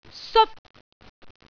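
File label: "seot1", Cantonese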